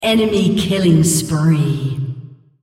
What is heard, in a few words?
female Killing Spree